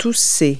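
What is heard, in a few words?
"cough", verb; Multi-Lingual Talking Picture Dictionary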